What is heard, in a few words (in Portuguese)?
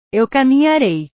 "eu caminharei", portugues